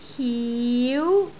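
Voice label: "hiu2", Cantonese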